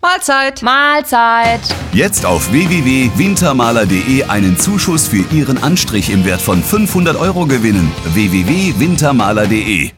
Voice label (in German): Radiospot; Wintermaler